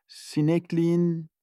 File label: window screen turkish